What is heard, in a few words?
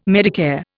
"Medicare", Indian